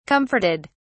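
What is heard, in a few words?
"comforted", British English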